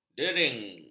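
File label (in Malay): "dering", Malay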